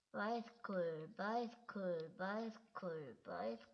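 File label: "bicycle", spoken word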